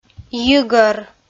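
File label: "йигӧр", Komi, female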